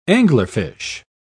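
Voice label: "anglerfish", British English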